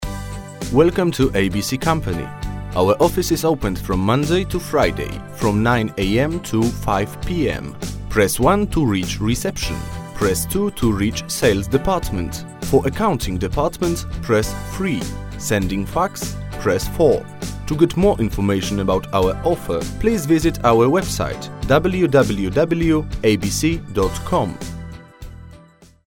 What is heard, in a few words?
miks syntezator, express clear Polish voice; express clear Polish & English voice, 30-50; PL & EN